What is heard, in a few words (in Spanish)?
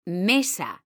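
"mesa" Spanish, female; mekanta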